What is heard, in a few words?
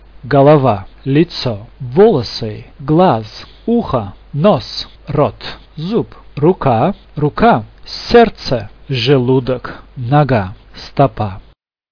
russian body